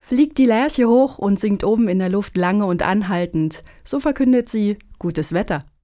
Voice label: german folk saying fine weather lark